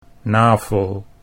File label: "navel", Dutch